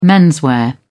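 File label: "menswear", British English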